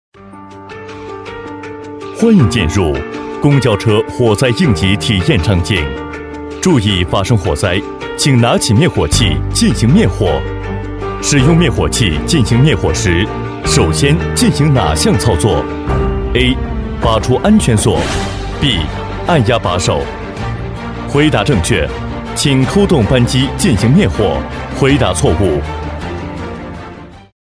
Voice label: 男95-课件-企业介绍沉着有力

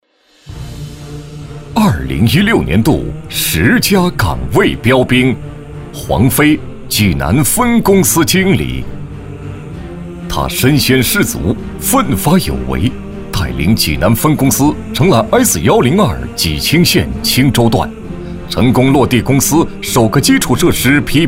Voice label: 男 颁奖 人物介绍（激情浑厚）

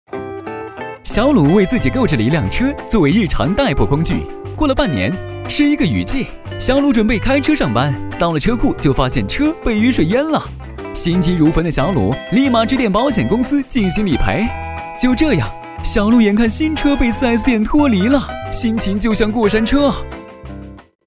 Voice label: 男; 飞碟说; 置换宝

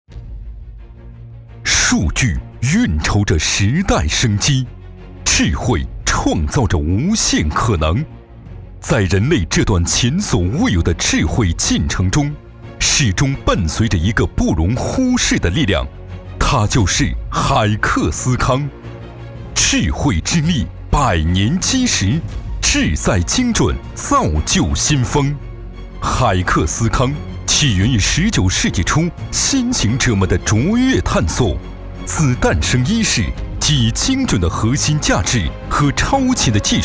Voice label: 男, 专题

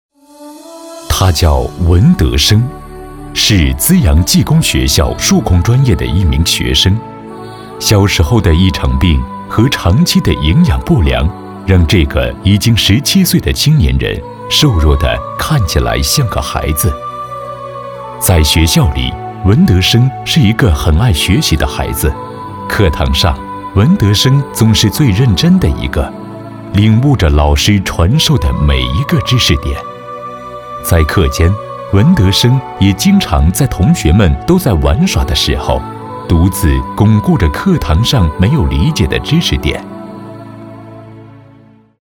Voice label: 男 专题 孤残助养

